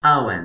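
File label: "awen", Toki Pona; linku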